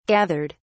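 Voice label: "gathered", British English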